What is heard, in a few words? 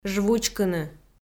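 "жвучкыны", Komi, female; audio dict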